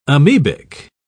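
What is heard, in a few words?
"amoebic", English, British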